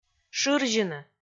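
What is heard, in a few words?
"шырзьыны", Komi, female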